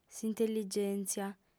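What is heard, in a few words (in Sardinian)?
"s'intelligèntzia", female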